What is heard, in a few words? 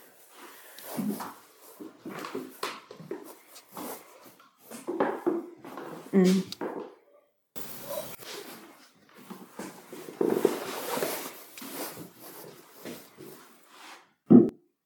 1st Floor Hallway, indecipherable voices; Amplified; there were indecipherable voices at :08.; greene county historical society museum return investigation; Hallway EVP